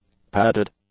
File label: "padded", English; adjectives